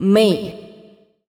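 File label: vocal female, Dance Vocals, spoken words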